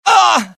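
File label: death, dialogue generic Italian; mohaa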